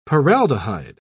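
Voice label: "paraldehyde", British English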